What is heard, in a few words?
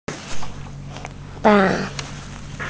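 "fa4", Mandarin Chinese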